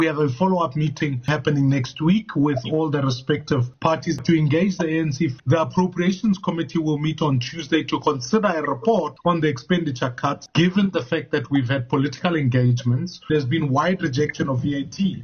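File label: English; Maimane; VAT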